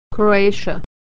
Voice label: "Croatia", pronunciation

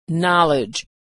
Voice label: "knowledge", pronunciation

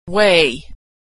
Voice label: "weigh", pronunciation

